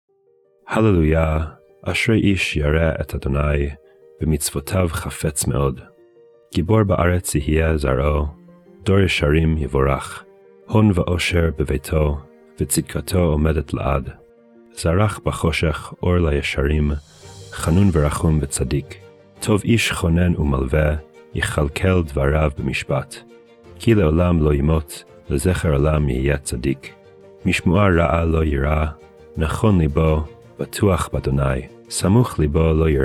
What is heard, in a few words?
poetry and prayer psalm hebrew